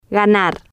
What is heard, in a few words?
"ganar", Spanish